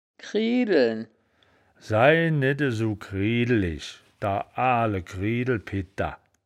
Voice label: "kriedeln", wittlich; das wittlicher woerterbuch; woerterbuch vertonung

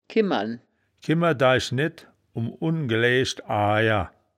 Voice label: "kimmern", wittlich; das wittlicher woerterbuch; woerterbuch vertonung

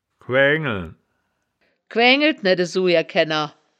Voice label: "quaeaengeln", Wittlicher; das wittlicher woerterbuch; woerterbuch vertonung

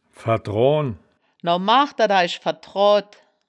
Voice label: "verdroan", wittlich

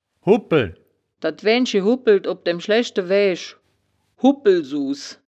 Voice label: "hubbpeln", wittlich

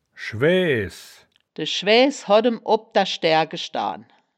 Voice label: "schwaeaehs", wittlich

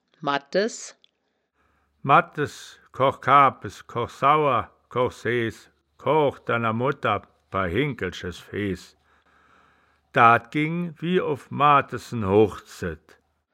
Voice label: "madtes", wittlicher woerterbuch; das wittlicher woerterbuch; woerterbuch vertonung

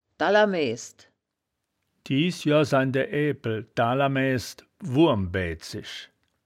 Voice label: "daaler maeaehsd", woerterbuch, Wittlich

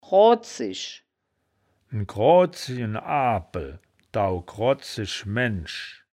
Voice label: "krodzisch", wittlich

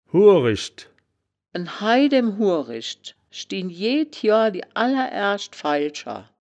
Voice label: "huurischd", wittlich; das wittlicher woerterbuch; woerterbuch vertonung

